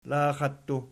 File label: "laxattu", Archi